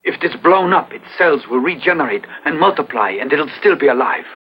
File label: Regenerate, Spoken, Industrial